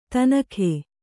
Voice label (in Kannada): "ಥಣ್ಣಗೆ", Kannada